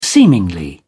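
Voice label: "seemingly", British English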